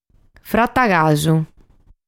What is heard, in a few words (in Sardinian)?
"Frata Casu", Sardu